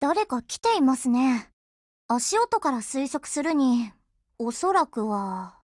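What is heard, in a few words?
BUILD OVER Japanese, Voice; GF Alarm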